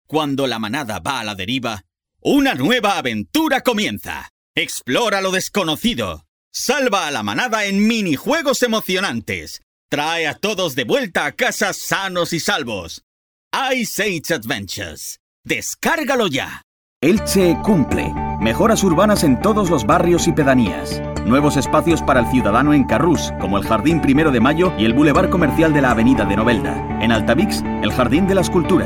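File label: Spanish Spain, male, voice over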